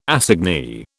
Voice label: "assignee", British